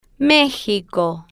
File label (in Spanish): "mexico", Spanish